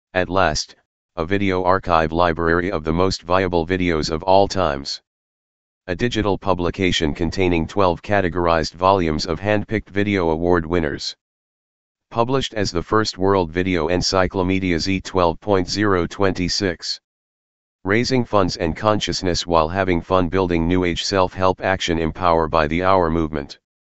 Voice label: text 2 voice